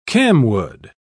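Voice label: "camwood", British English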